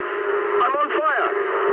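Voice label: English on fire mood med; speech; War Thunder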